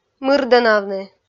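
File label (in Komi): "мырдӧнавны", Komi, female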